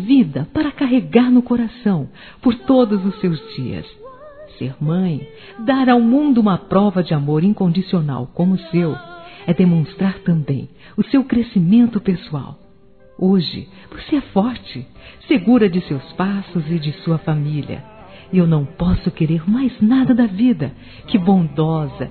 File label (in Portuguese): MÃE P FILHA MÃE F, Telemensagens, Dia das Mães; Telemensagens 2017